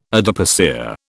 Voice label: "adipocere", British